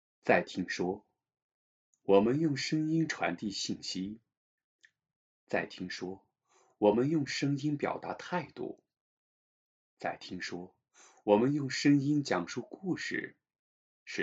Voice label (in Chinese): 讲述